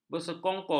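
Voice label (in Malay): "bersekongkol", Malay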